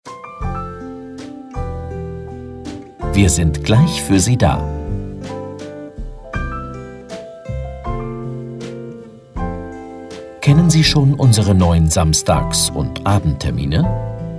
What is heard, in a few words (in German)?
Warteschleife; Clinius; Telefonansage Zahnarzt